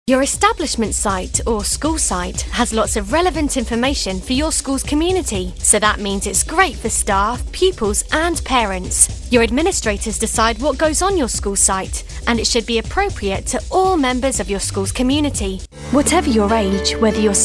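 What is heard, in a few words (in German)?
British English, Kommentar, Variabel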